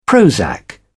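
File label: "prozac", British English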